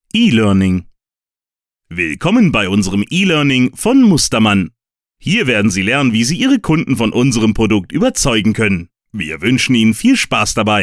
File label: elearning